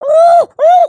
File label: volunteer, emotes